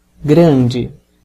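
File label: "big", Brazilian Portuguese; gcompris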